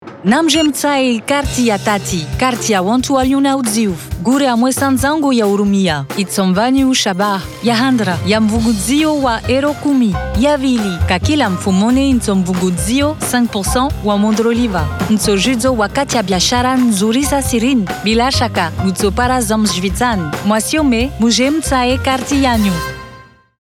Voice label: SPOT TV

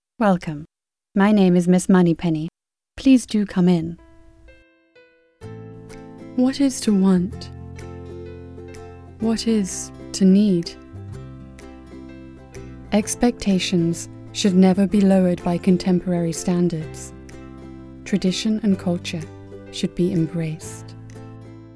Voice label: Corporate Business

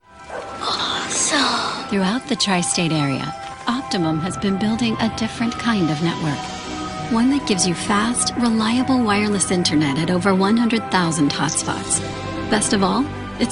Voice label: Wifi TV Spot

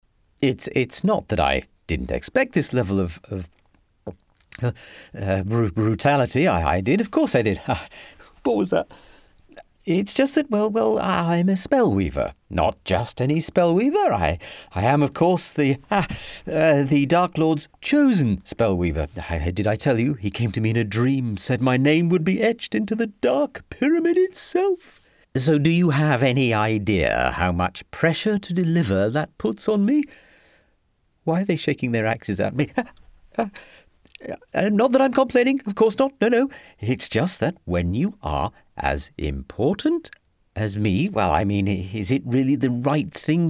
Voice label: NPC gaming voice

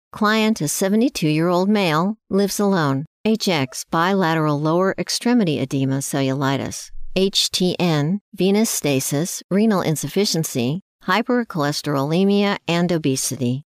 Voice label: MEDICAL narrative only

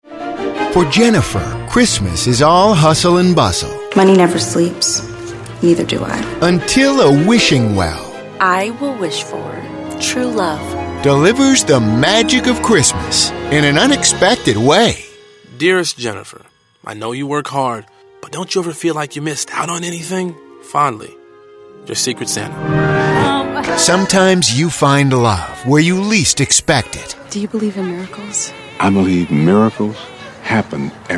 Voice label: Holiday Movie Trailer

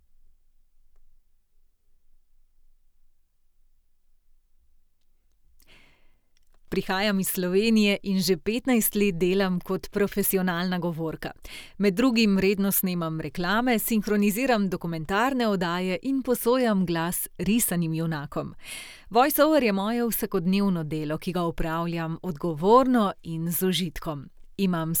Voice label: Studio Sample

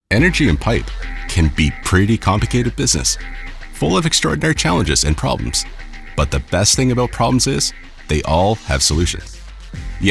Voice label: Explainer

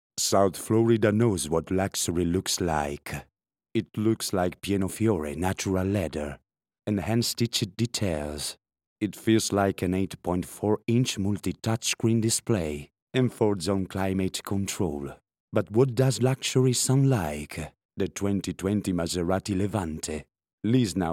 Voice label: COMMERCIAL; MASERATI MIAMI

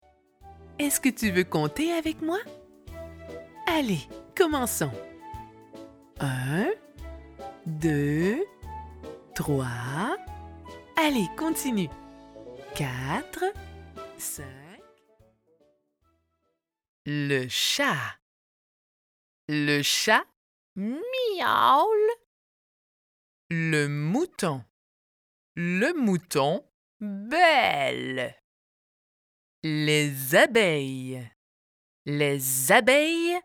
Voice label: e-learning kids

